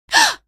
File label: shock, female; video games; npc; source engine; episodic; episode 1